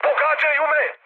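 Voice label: Serbian aircraft damaged mood high, speech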